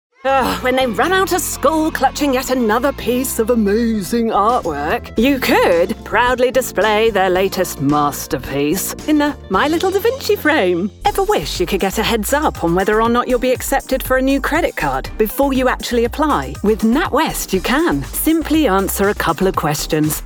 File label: Commercial